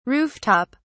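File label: "roof top", English, British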